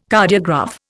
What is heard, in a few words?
"Cardiograph", Indian